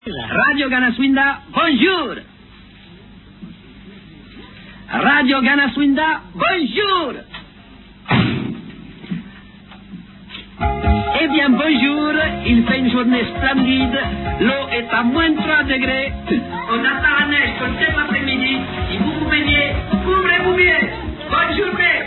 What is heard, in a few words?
radio